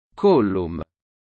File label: Collum latin